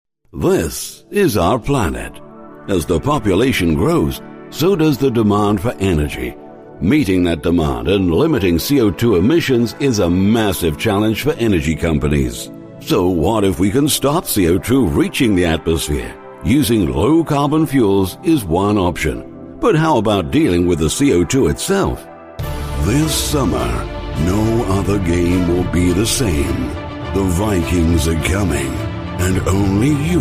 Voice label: mid atlantic international uk voiceover

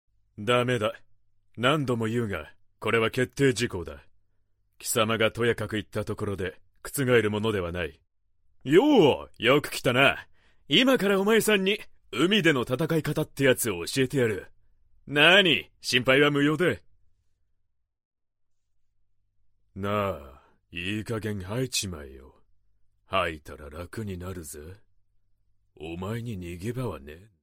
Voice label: character middle age

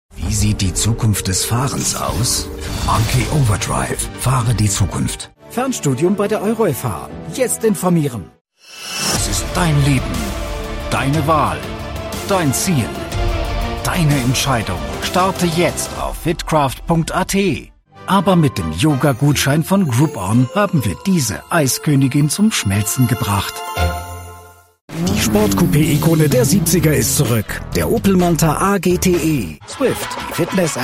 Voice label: german commercials

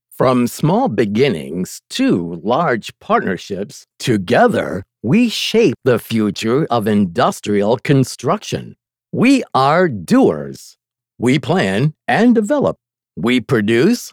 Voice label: corporate

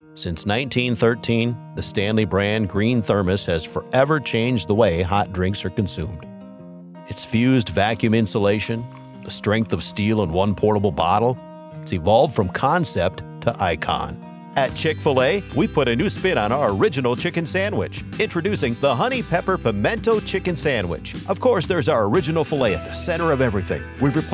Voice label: commercial

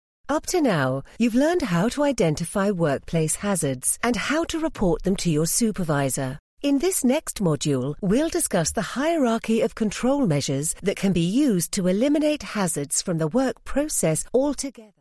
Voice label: elearning hazzards

